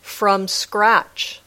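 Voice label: "from scratch", English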